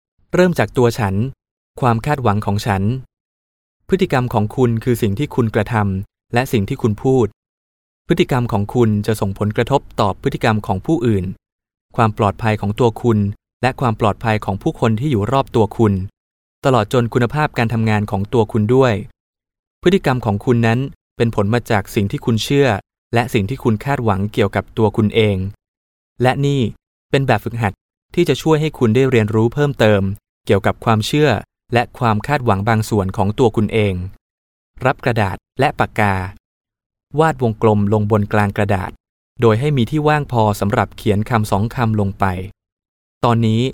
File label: Thai Male